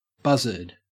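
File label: "buzzard", Australian English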